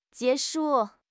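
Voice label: "jie2 shu4", Mandarin Chinese; HSK3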